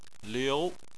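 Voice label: "liu", Chinese, second tone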